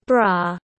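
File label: "bra", English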